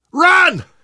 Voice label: emphatic run, survivor voice; source engine; left 4 dead 2; namvet